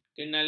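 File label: "kenaling", Malay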